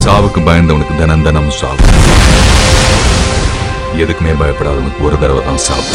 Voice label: trailer dialogue tone; ajith; aarambam